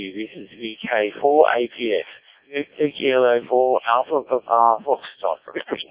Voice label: decoded speech, 7177KHz